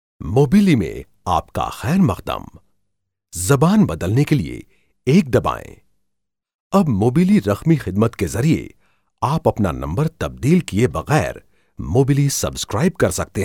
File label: Urdu Male